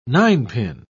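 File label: "ninepin", British English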